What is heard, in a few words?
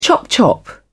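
"chop chop", British English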